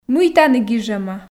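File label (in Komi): мый тані гижӧма, Komi, female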